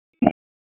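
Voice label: Raleigh Police North District Tactical, p25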